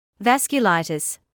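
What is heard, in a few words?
"vasculitis", British English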